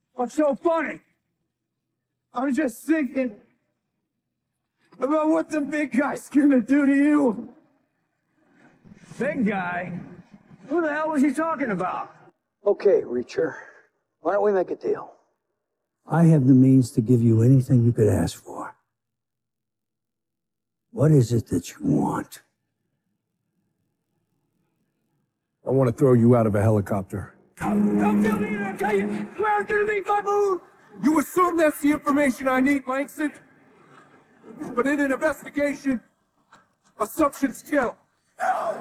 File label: vocals